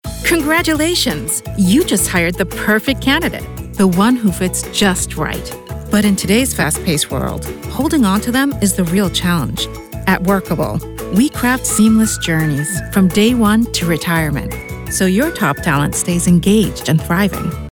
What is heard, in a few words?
spot Conversational; Viglobal